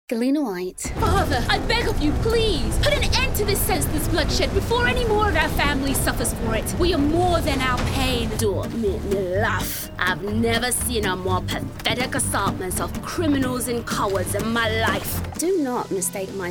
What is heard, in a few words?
CHARACTER